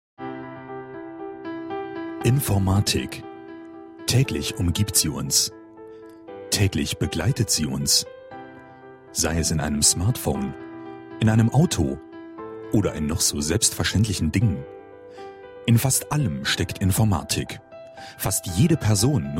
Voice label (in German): imagefilm